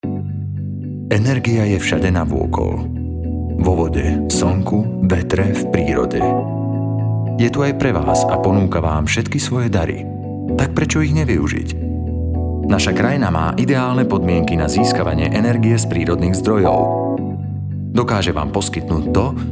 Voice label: voiceover